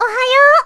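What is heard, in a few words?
"ohayo", Japanese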